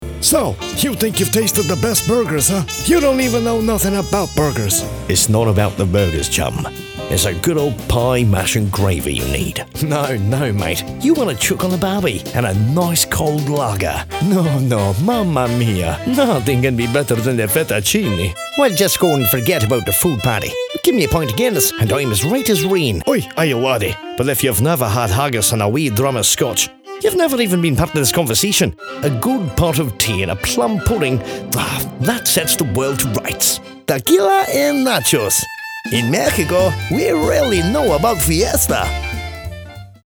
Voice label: English Montage of Accents

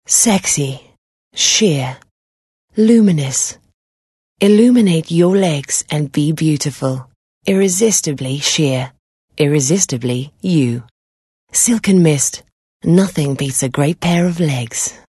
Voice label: English Soft Sell

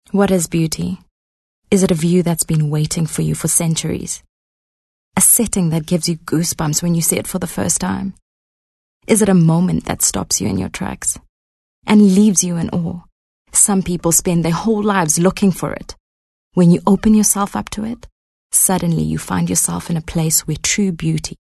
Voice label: English Softsell

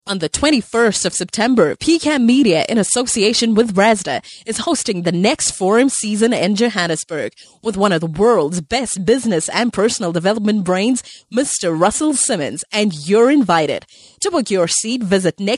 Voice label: English American accent

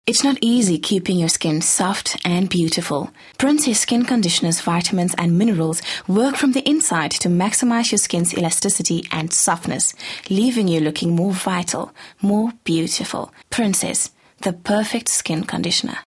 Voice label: English Softsell

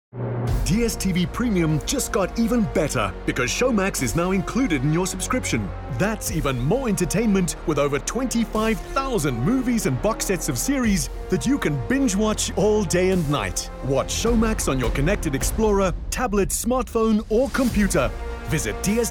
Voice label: English Promo Showcase

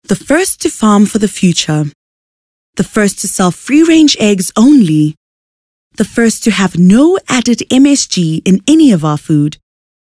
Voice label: English Softsell

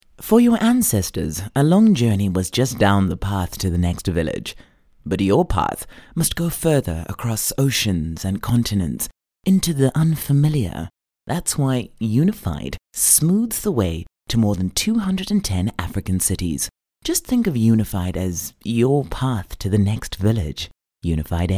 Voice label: English Conversational; OSM Talent

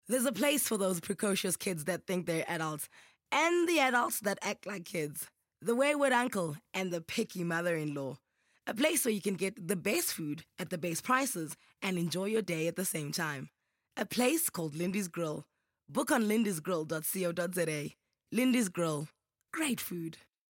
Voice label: English Intimate

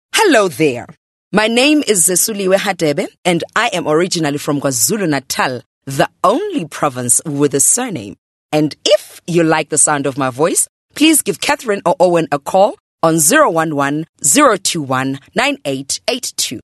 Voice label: English conversational

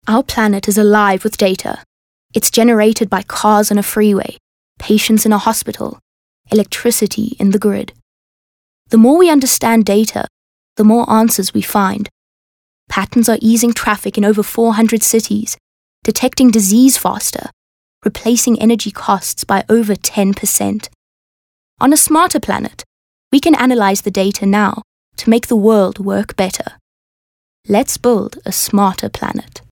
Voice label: English Softsell

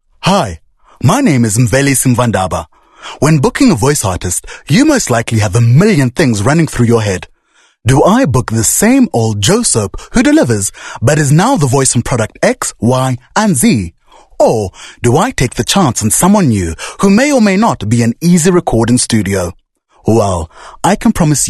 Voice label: English Conversational; OSM Talent

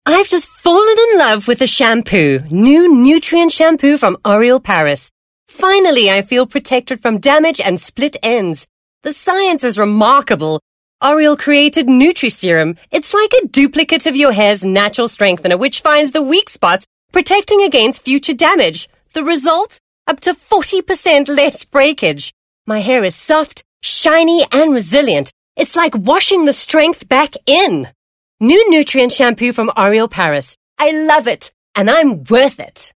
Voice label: English Bright

